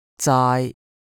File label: "zaai1", cantonese